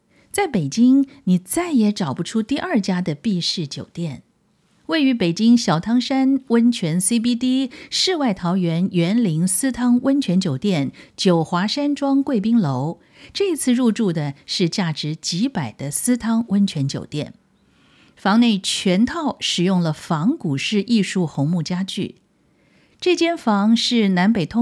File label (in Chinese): 商业宣传